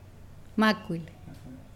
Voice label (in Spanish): Nawat palabras cinco; pipil